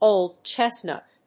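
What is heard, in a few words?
"old chestnut", English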